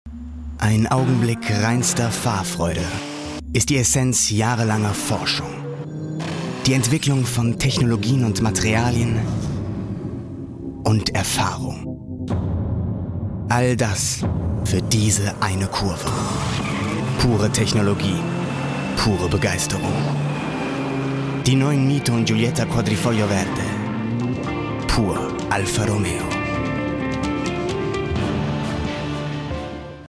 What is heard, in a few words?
tv spot; alfa romeo quadrifoglio